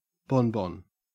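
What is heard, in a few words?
"bonbon", Australian English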